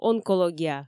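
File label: "онкология", Komi, female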